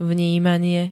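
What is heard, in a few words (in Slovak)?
"vnímanie", Slovak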